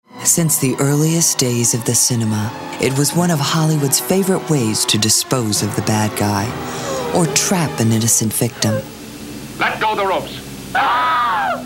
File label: Storyteller